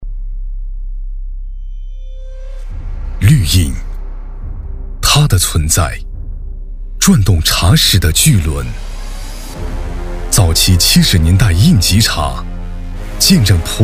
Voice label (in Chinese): 男 广告 低沉讲述; 绿印普洱茶